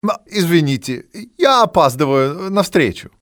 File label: late for meeting, male; Soldier Of Fortune II Double Helix; XBOX; civ